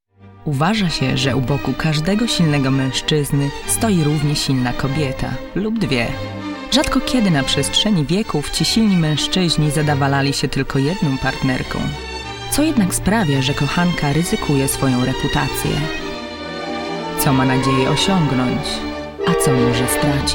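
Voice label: Polish, Documentary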